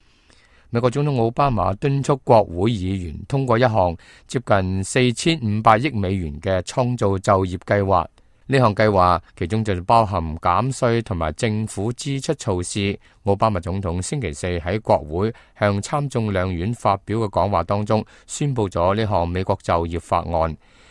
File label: cantonese, news